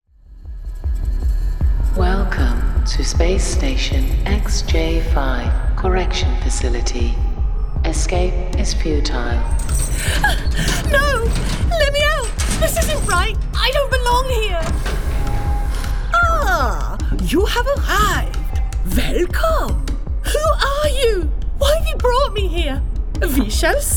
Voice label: Games Character